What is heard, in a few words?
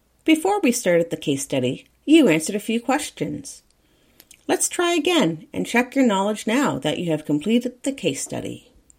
narration